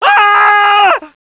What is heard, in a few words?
scared